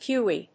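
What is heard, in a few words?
"pewee", English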